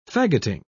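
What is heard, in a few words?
"fagoting", British English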